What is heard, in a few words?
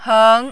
"heng2", Mandarin